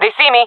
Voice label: takingfire, jungle fem; vo; Counter Strike Model Pack; CS:GO

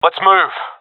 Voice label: request move, professional; vo; Counter Strike Model Pack; CS:GO

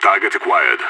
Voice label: radio enemy spotted; idf; vo

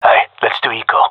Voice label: gendermerie male; request eco; Counter Strike Model Pack; CS:GO

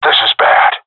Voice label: scared, seal diver; agents

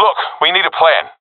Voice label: request plan, professional epic; vo; Source Model Packs; Counter Strike Model Pack; CS2

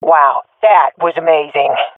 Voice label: compliment, swat fem; vo; agents; Source Model Packs; Counter Strike Model Pack; CS2

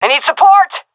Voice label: request backup, seal fem; vo; Counter Strike Model Pack; CS:GO

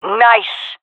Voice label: compliment, jungle fem; vo; agents; Source Model Packs; Counter Strike Model Pack; CS2; jungle fem epic

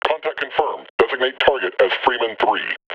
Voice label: combine alert, combine soldier vo

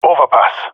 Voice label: "overpass", male; vo; agents; Source Model Packs; Counter Strike Model Pack; CS2; jungle male epic

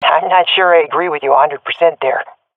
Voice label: disagree, swat fem; vo; agents; Source Model Packs; Counter Strike Model Pack; CS2

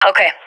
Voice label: affirmation, vo agents; fbihrt epic

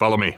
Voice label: radio follow me; vo; Counter Strike Model Pack; CS:GO; phoenix coop

